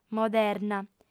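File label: "moderna", female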